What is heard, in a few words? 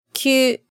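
"kyu", Japanese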